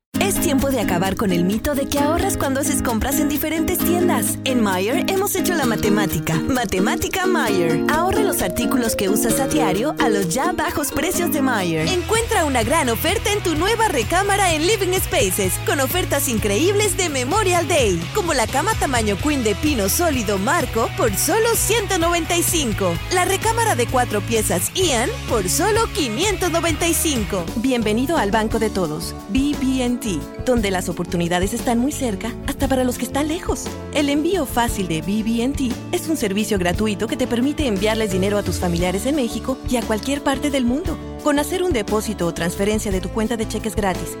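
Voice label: Spanish, Latin American, Mexican, female, Commercial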